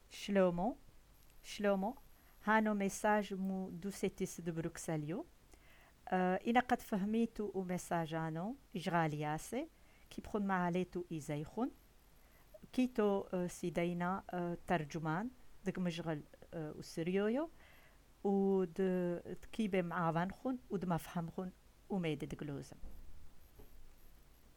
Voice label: Arameen

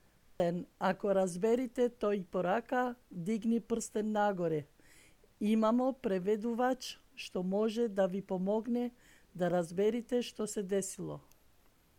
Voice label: Macedonien

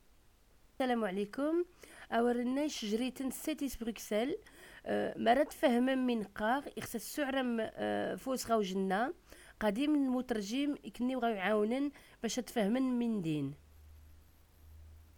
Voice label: Tarifit Berbere

